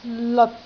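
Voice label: "leot6", Cantonese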